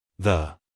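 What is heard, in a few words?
"the", American English male; everyone-can-use-english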